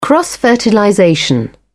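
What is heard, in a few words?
"cross fertilization", British English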